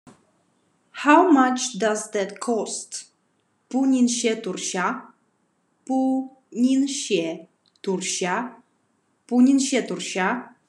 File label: Khakas How much does that cost